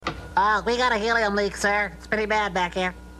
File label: helium voice